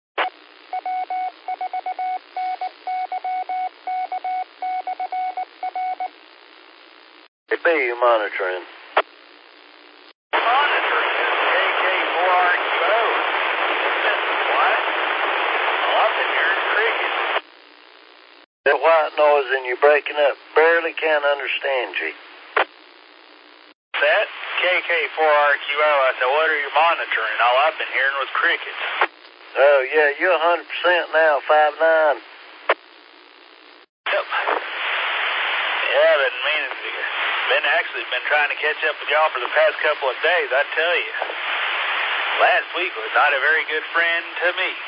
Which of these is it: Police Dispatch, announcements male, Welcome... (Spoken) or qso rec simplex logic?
qso rec simplex logic